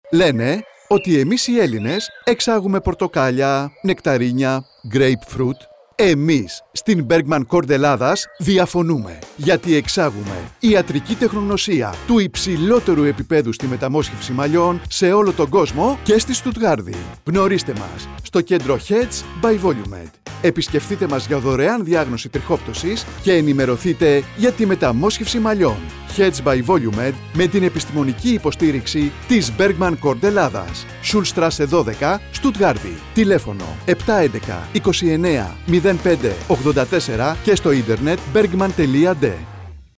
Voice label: radio spots; trixoptwsi metamosxefsi malliwn therapies; potrtokalia; germany